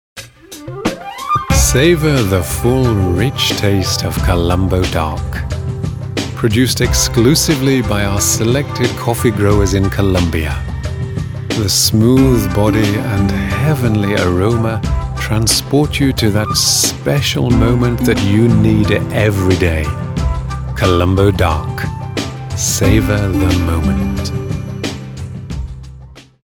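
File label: British commercial soft sell, male; engelsk-uk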